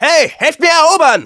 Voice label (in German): Scout help me capture, de; "Hey, helft mir erobern!"